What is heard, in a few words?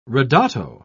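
"ridotto", British English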